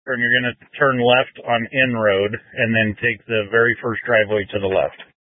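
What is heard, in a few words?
Incident Tac 2, Scanner audio recording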